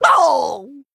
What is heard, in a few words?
Male Goblin Death